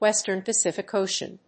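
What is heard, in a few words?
"Western Pacific Ocean", English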